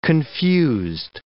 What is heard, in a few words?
"confused", English